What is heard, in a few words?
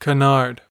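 "canard" English, American accent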